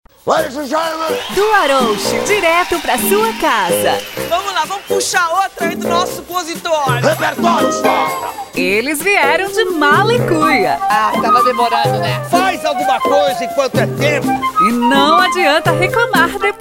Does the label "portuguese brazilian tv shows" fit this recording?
Yes